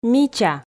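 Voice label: "мича", Komi, female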